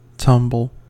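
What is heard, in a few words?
"tumble", American English; pronunciations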